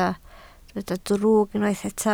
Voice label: "drwg", Welsh

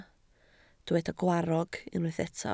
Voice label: "gwarrog", Welsh

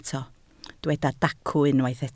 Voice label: "dacw", Welsh

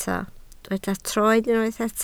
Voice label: "troed", Welsh

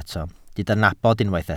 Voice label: "nabod", Welsh

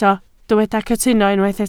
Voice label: "cytuno", Welsh

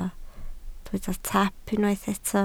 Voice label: "taap", Welsh

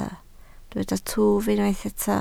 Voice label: "twf", Welsh

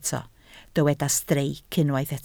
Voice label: "streic", Welsh

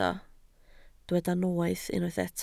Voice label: "noeth", Welsh

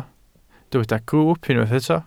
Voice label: "grwwp", Welsh

